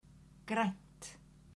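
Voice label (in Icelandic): "grænt", Icelandic, female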